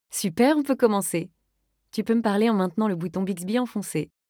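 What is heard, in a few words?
wake up skipped French; Bixby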